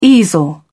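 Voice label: "easel", British English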